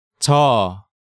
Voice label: "Jeo", Korean